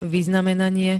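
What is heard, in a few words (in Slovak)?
"vyznamenanie", Slovak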